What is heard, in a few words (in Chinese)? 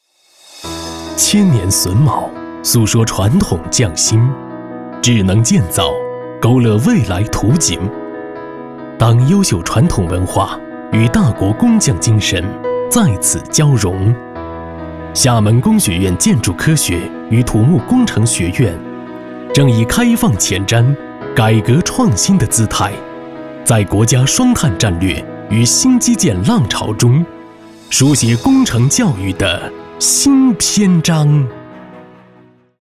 宣传; 建筑科学与土木工程学院